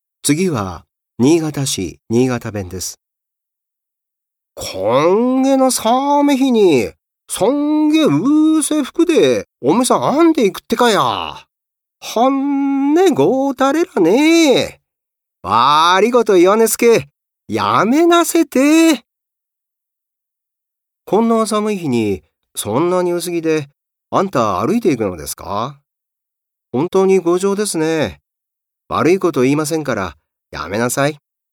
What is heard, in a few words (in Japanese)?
方言